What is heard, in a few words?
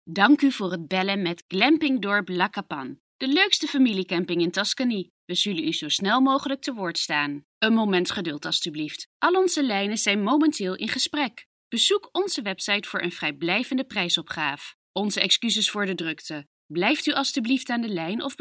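Dutch, female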